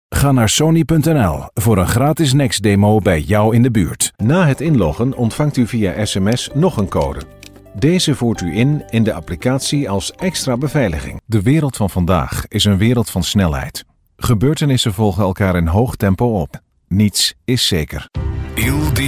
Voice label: dutch voiceover locutor holandes